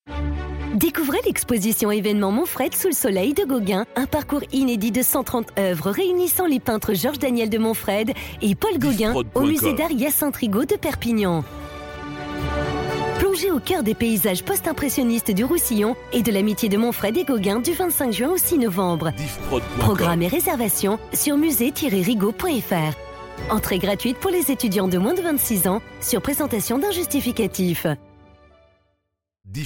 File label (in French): Spot Exposition Etudiants; Monfreid Gauguin